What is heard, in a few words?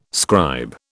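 "scribe", British English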